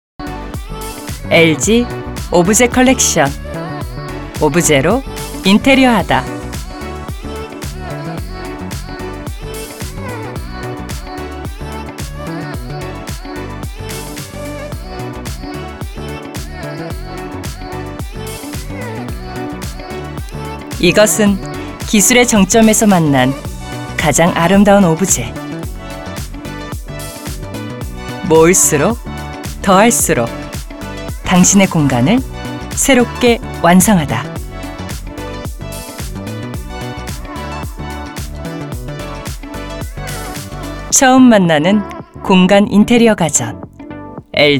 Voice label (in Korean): 일반인스러운 20대 30대; 비스포크